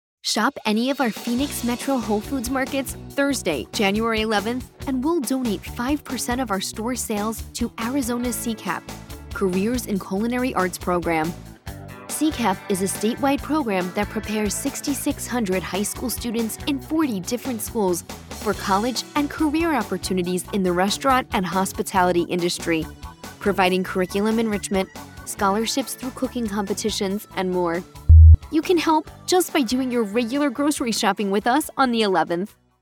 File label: Telephony